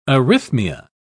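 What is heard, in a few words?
"arrhythmia", British English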